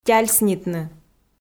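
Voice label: "тяльснитны", Komi, female; audio dict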